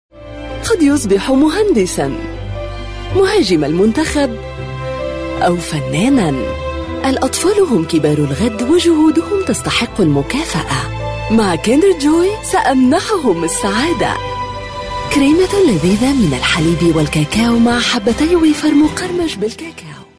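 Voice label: arabic egyptian voiceover locutora arabe egipcia; Arabic Egyptian female voice talent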